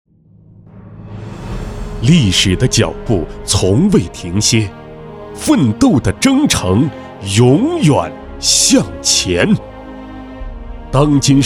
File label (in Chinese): 专题片; 国家税务总局